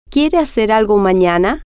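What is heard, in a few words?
quiere Hacer Algo Manana, Spanish, female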